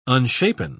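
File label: "unshapen", British English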